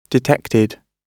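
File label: "detected", British English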